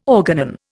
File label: "organon", Indian